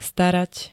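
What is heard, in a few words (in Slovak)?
"starať", Slovak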